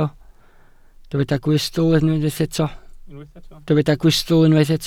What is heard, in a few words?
"gwystl", Welsh